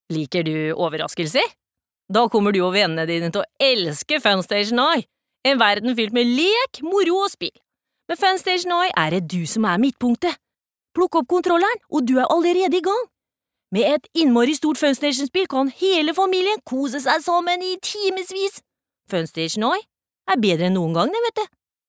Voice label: no fredrikstad, norsk kvinne